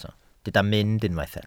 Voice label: "mynd", Welsh

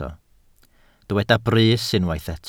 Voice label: "brys", Welsh

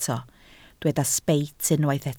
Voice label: "sbeit", Welsh

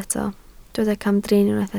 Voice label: "camdrin", Welsh

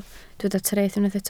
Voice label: "treth", Welsh

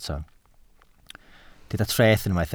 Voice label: "treth", Welsh

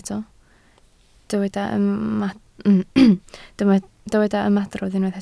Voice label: "ymadrodd", Welsh

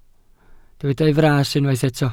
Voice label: "fras", Welsh

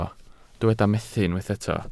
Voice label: "methu", Welsh

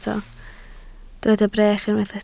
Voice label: "brech", Welsh

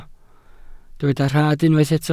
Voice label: "rhad", Welsh

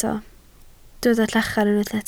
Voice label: "llachar", Welsh

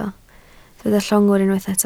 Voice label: "llongwr", Welsh

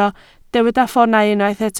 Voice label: "ffoonau", Welsh

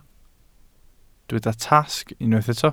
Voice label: "tasg", Welsh

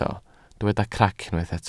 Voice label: "crac", welsh

